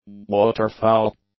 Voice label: "waterfowl", English; nouns

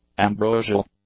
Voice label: "ambrosial", English; adjectives

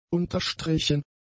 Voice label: "unterstrichen", German; adjectives

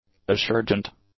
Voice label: "assurgent", English; adjectives

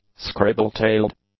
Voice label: "Scribble-tailed", English

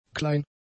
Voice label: "klein", German; adjectives

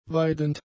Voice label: "weidend", German; adjectives

